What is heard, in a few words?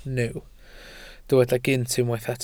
"gynt", Welsh